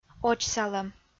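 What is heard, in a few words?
"очсалӧм", Komi-Zyrian, female; audio dict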